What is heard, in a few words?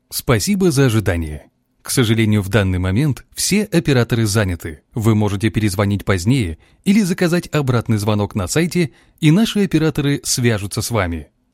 IVR